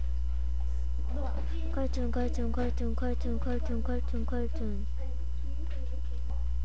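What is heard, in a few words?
"cartoon", spoken word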